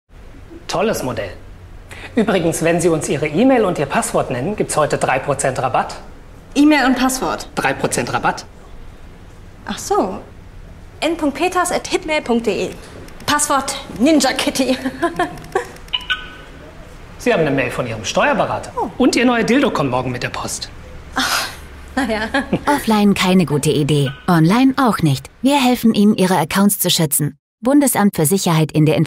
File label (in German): spot; einfachabsichern